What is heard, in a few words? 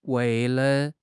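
"wei4 le5", Mandarin Chinese; HSK3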